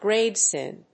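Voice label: "grave sin", English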